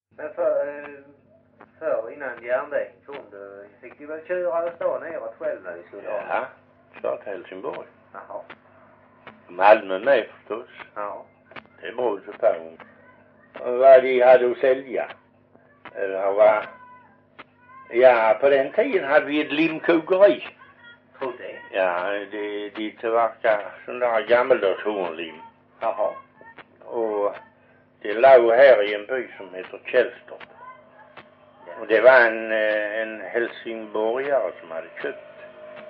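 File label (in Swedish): Perstorp Skåne, Svenska sagor, dialekter; Folkminnen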